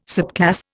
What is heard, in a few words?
"subclass", Indian